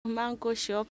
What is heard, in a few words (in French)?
manko prononciation